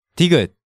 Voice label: "Digeut", Korean

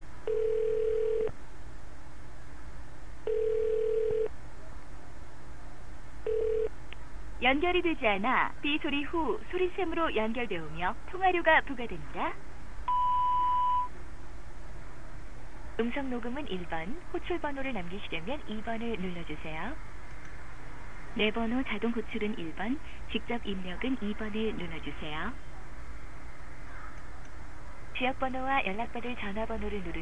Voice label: Voicemail, Korean

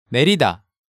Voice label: "Naerida", Hangeul sounds; DigitalSoju

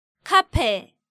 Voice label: "Cafe", Korean

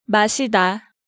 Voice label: "Masida", Hangeul sounds; DigitalSoju

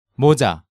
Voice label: "Moja", Korean

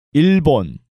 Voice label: "Ilbon", Korean

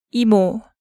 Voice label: "Imo", Korean

